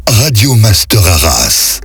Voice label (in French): Accap slow; Arras; Radio Master; Voix Off